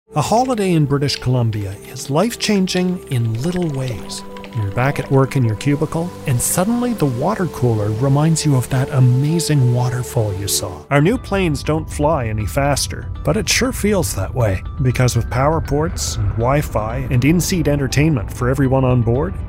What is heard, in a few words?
commercial